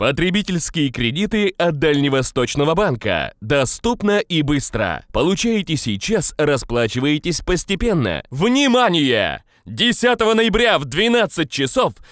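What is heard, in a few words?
Russian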